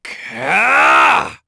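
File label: Vox Casting, Korean; Lusikiel; kingsraid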